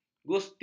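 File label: "gusti", Malay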